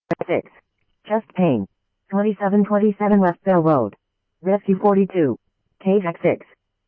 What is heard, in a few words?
Phoenix Fire, scanner; K1 PHX Alarm; RWC; C-Chandler